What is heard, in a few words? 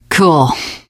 hurrah, teen girl, survivor voice